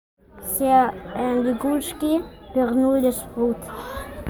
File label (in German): Sprachmemo